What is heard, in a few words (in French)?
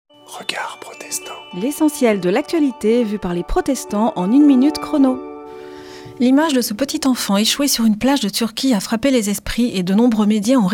Spot radio; Regards protestants